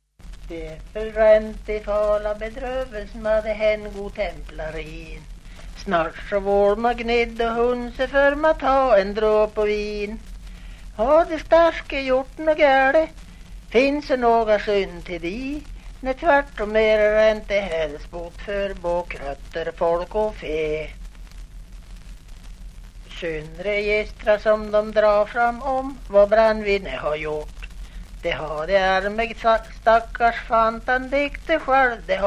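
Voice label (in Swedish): Häggenås Jämtland, dialekter; Folkminnen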